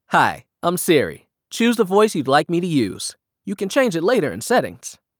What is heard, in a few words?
en-US; iOS 26